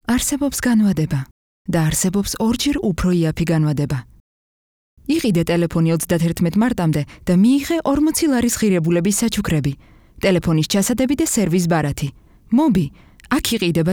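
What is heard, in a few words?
georgian female commercial; voice demos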